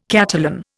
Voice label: "Catalan", Indian